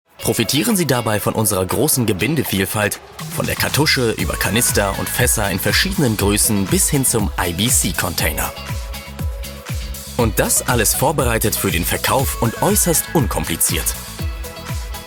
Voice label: Erklaerfilm, Tutorial, Audioguide, German; Siebert GmbH - Erklärfilm

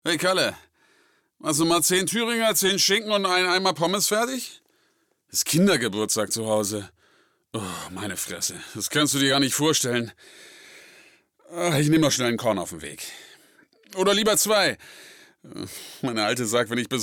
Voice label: synchron, Lip-Sync (Synchron); voice

